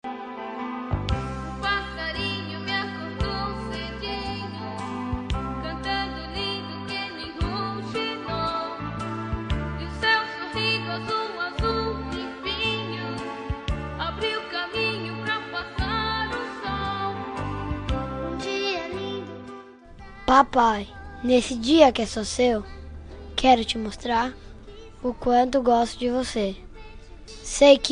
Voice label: dia pais, voz infantil masculina; Dia dos Pais

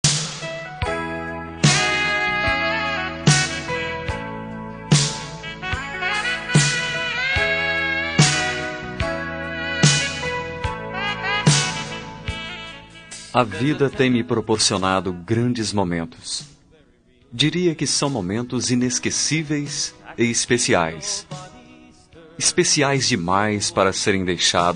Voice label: Voz Masculina, Telemensagem; Telemensagem Momentos Especiais; obrigado

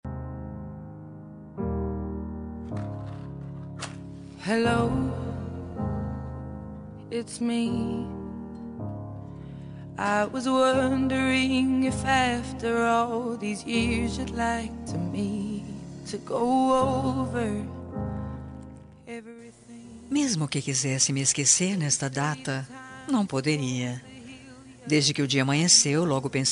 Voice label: aniv distante rom fem, Aniversário Distante, Romântica, Feminina

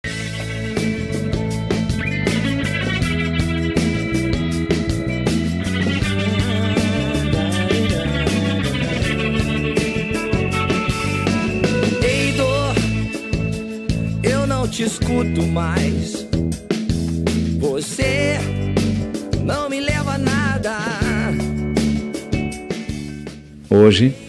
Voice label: amizade masc, Telemensagem de Amizade – Voz Masculina

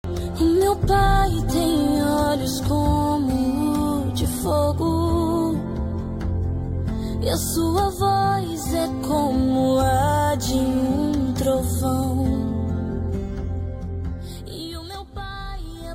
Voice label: dia dos pais feminina

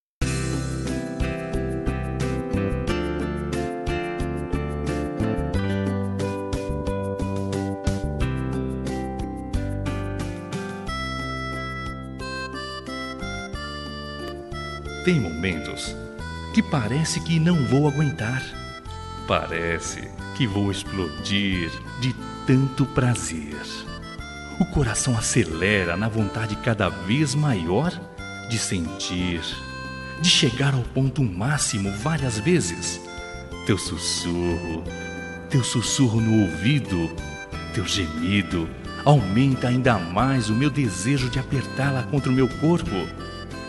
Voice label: erotica, Telemensagem Erótica – Voz Masculina

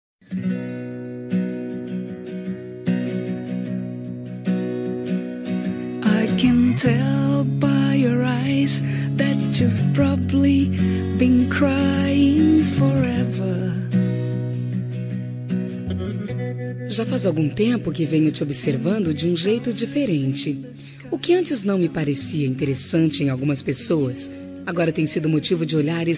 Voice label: Telemensagem de Pedido – Voz Feminina; Quer Namorar

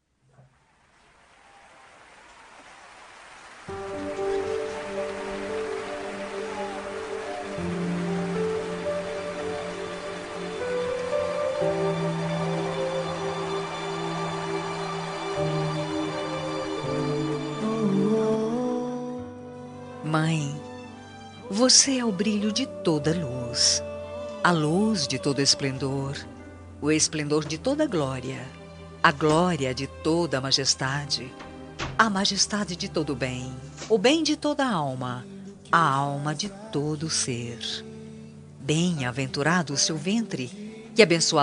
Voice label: evangelica fem; dia das maes para mae evangelica voz feminina

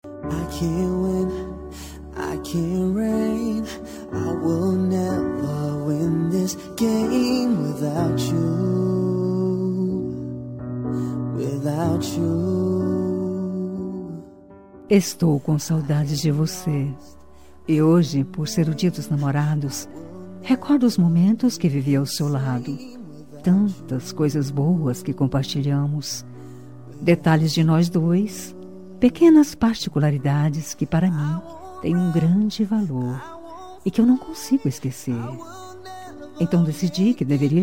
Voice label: ex fem; dia dos namorados para ex namorada voz masculina

